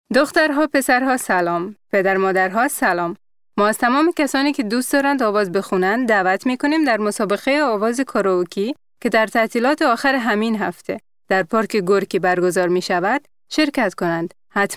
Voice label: farsi